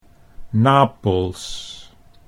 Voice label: "Napels", Dutch